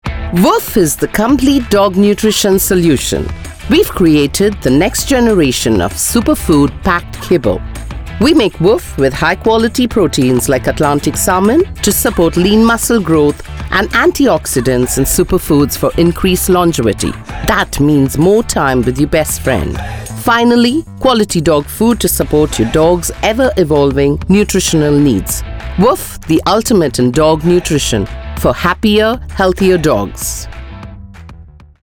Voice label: Voice Over